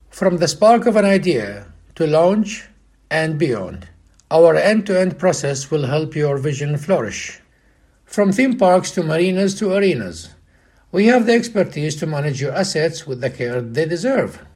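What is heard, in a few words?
Arabic, accented English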